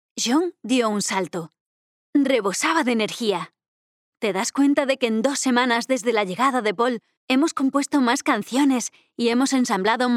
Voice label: spanish audiobooks; Soy una actriz de doblaje española